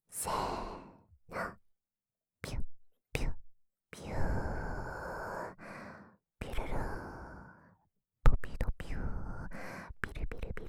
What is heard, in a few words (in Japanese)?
ぴゅっぴゅ連呼ボイス; 水泳部見学部を作ろう!